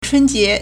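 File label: "chūnjié", Chinese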